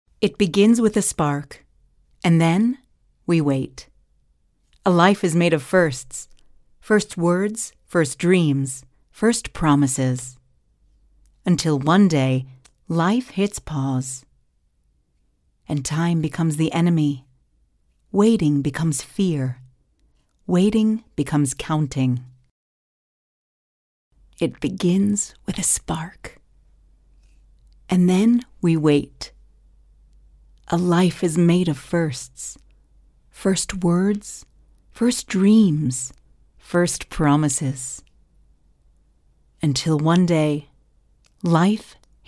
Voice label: locutora estados unidos north american voiceover; locutores norteamericanos USA